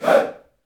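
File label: voice "hey", utterances, Africa; Roland LCDP11 Africa VOL-1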